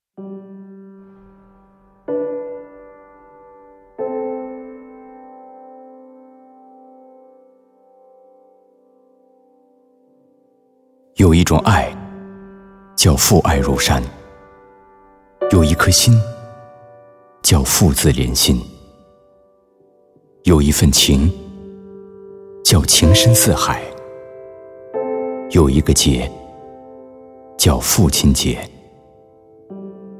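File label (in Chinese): 男国大音; 祝天下的父亲节日快乐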